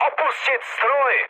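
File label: bailout, Czech, mood med; War Thunder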